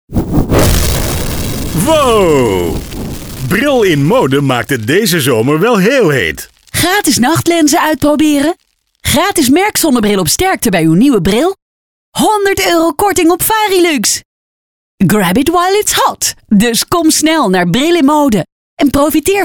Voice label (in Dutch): radiospot; zomderdeals